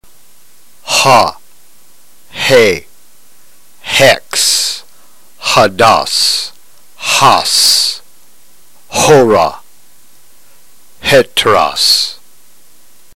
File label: drill, ntgreek